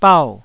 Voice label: "bau4", taiwanese syllables; 台語音節發音檔案